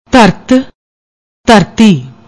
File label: "dhart", Punjabi